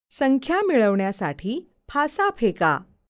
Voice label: Roll Dice VO, Marathi